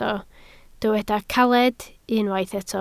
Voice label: "caled", Welsh

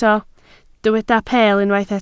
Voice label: "peel", Welsh

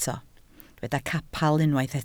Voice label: "capel", Welsh

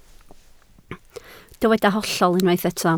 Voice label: "hollol", Welsh

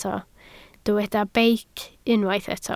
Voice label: "beic", Welsh

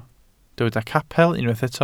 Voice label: "capel", Welsh

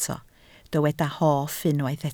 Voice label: "hoff", Welsh

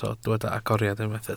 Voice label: "agoriad", Welsh

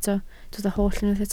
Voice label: "holl", Welsh

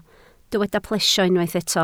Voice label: "plesio", Welsh

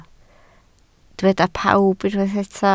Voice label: "pawb", Welsh

